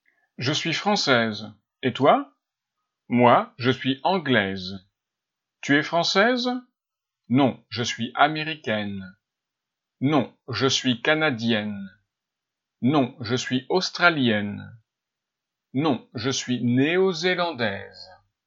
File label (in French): audio nationalités femme